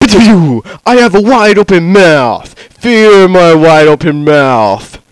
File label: Wide Open, Bad Vocals